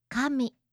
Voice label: "kami", japanische

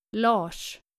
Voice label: "lars", skandinavische jungennamen

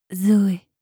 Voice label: "zoe", franzoesische maedchennamen

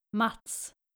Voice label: "mats", nordische jungennamen

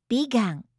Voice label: "bigan", japanische maedchennamen

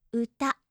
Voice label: "uta", japanische

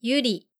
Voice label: "Yuri", japanische Jungennamen

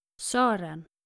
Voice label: "soeren", skandinavische jungennamen

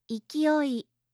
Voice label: "ikioi", japanische

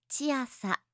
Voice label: "chiasa", japanische maedchennamen

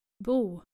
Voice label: "bo", nordische jungennamen

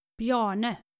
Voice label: "bjarne", nordische jungennamen

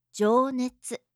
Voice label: "jonetsu", japanische

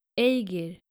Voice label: "aegir", skandinavische jungennamen

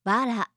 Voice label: "bara", japanische maedchennamen

